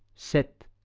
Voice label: "set", Occitan